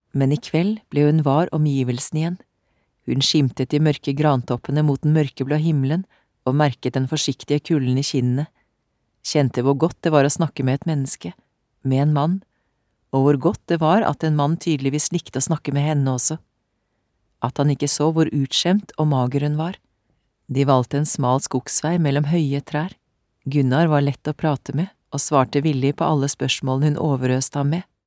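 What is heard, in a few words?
audiobook female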